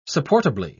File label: "supportably", en uk